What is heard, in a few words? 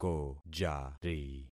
"kojari", concat syllables; Affixing experiment